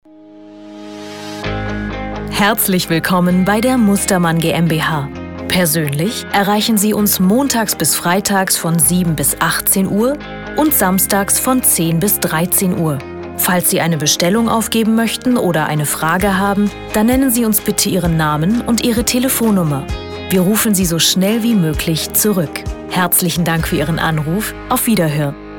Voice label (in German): Ansage Telefon